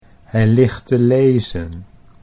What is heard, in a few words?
"hij ligt te lezen", Dutch